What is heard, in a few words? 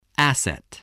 "asset", english